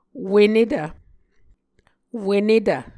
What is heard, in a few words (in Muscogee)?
"wenetv", Muscogee